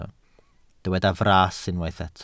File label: "fras", Welsh